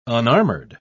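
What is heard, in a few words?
"unarmored", British English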